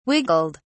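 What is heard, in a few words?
"wiggled", British English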